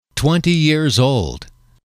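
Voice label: twenty years old, English, male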